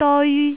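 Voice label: "deoi1", Cantonese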